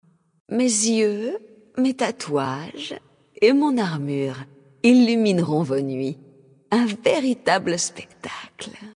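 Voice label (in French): Female Flirt, voix françaises; Draenei Sancteforge; Spectacle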